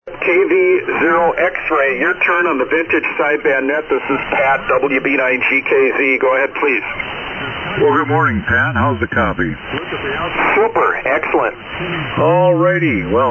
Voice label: Yaesu FT-102 & Commander Amp; Isanti, MN.

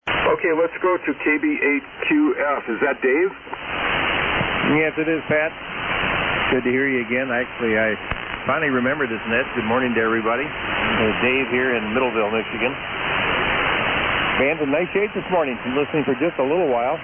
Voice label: Yaesu FT-902DM MD-1 Mic; Middleville, Mi.

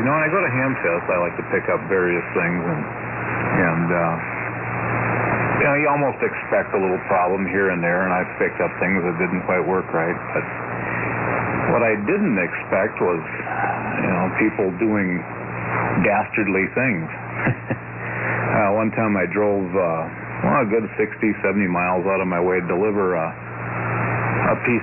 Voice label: Yaesu FT-102 and Sweep Tube Amp; Isanti, MN.

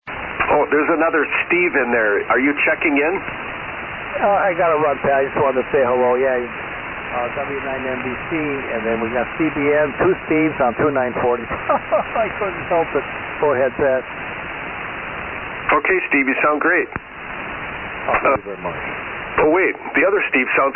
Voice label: Kenwood TS-940S; Rapid River, Mi.

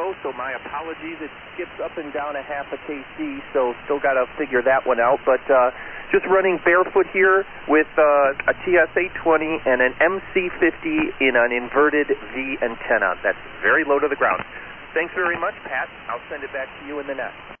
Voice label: Kenwood TS-820 & MC-50 Mic; Plainfield, IL.

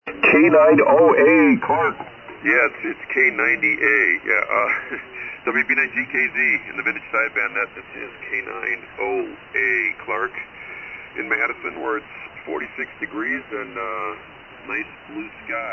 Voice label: Collins 32S3 75S3 EV-664 Mic & 30L1 Amp; Madison,Wi.

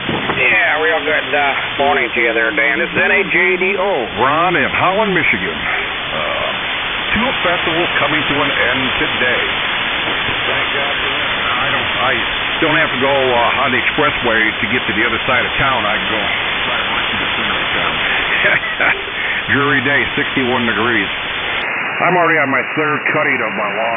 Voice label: Yaesu FT101B D-104; Holland, MI

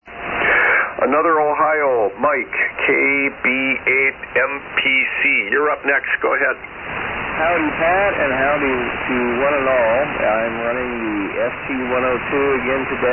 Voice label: Yaesu FT-102 & SB-200 Amp; Bucyrus, OH.

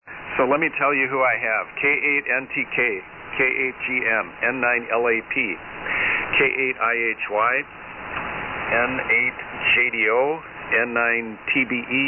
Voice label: SSB Net Check Ins

